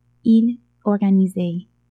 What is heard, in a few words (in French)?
"il organisait", french